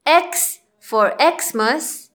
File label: x xmas, English; grade1; identifying letters third